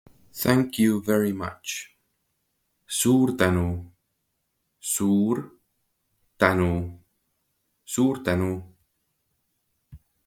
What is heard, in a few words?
Thank you very much, Estonian phrasebook; the talking estonian phrasebook